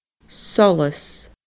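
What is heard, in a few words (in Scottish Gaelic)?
"solas", Scottish Gaelic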